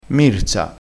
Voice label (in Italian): "mirza", crespinese